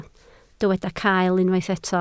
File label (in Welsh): "cael", Welsh